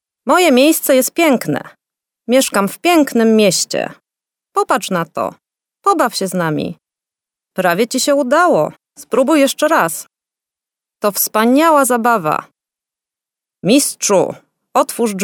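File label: Polish female children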